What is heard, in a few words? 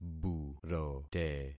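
"burote", concatenated syllables; Affixing experiment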